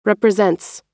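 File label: "represents", American English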